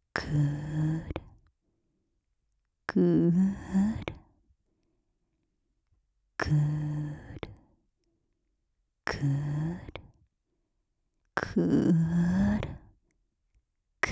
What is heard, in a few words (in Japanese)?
お姉さん クルクル ゆっくり; ASMR; オノマトペ; 右耳